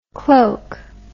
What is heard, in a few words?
"cloke", English